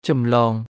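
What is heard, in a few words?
"ចម្លង" Khmer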